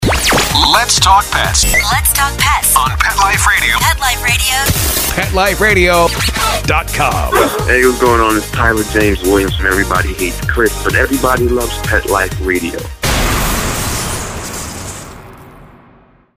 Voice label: Generic Sweeper; PetExpo